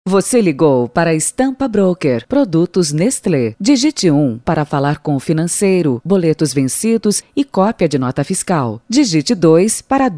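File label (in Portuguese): Feminino, Português - Brasileiro, Espera Telefonica, Voz Padrão - Grave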